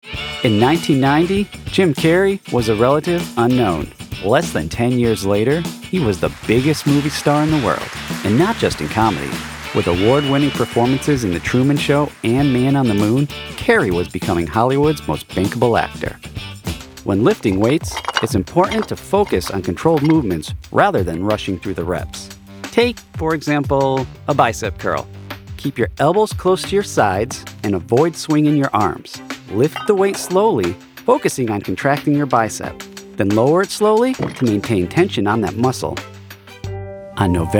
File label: Narration